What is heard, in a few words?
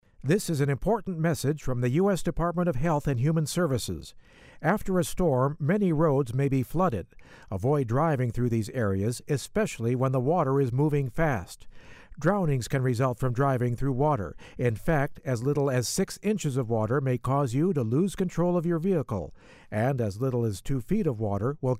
psa disaster water driving male voice